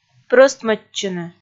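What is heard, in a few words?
"прӧстмӧдчыны", Komi, female; kpv audio dict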